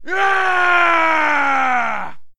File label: man victory; Mount&Blade Warband